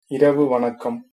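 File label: good night, Tamil, male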